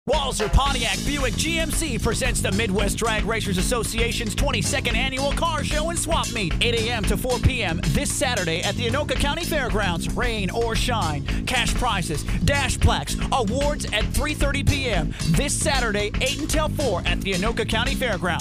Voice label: Car Show Radio Spot